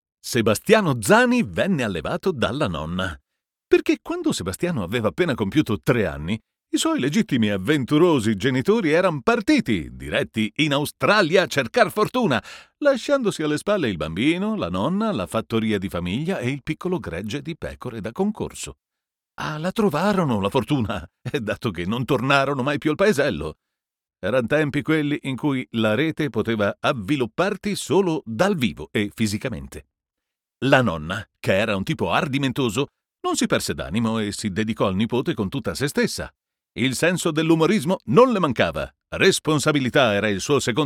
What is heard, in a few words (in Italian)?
ITA audiobook funny